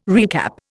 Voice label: "recap", Indian